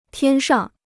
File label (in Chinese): "天上", Chinese